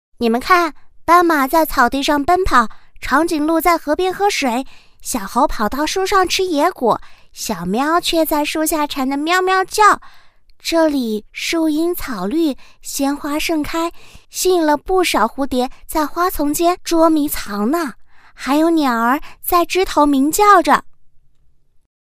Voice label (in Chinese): 女, 男童, 5-7岁; 年轻知性; 可爱